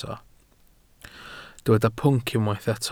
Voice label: "pwnc", Welsh

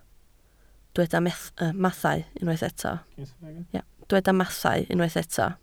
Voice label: "mathau", Welsh

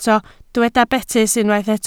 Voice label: "betys", Welsh

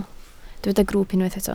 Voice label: "grwwp" Welsh

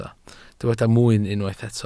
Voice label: "mwyn", Welsh

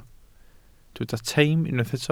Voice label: "teim" Welsh

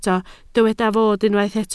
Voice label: "fod", Welsh

